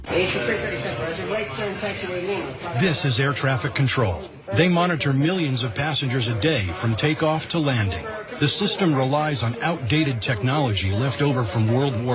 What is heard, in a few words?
Radio Ad